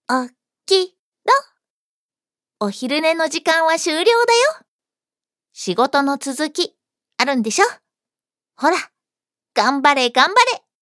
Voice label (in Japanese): ハイテンション, ハイテンションな女の子